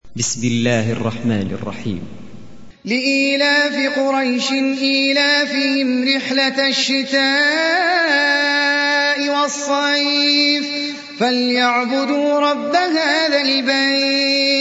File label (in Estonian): Quraish retsitatsioon